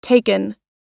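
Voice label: "taken", US English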